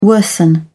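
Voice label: "worsen", British English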